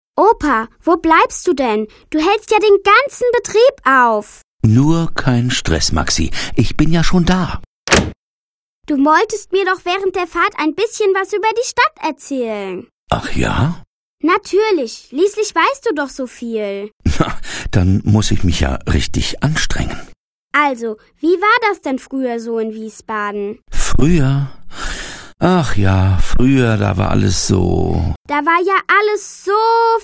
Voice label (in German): hoerspiel